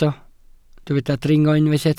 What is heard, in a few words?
"dringo", Welsh